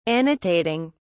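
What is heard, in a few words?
"annotating", British English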